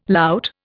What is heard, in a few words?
"lout", Indian